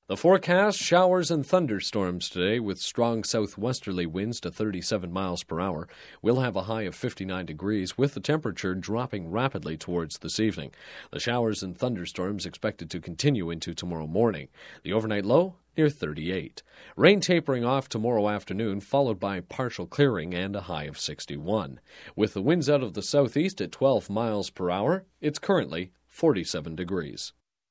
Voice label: Broadcast, Weather Generic Fall Forecast; Wet; Radio; Sound Ideas; Series 2000